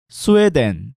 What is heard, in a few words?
"Seuwedeon", Korean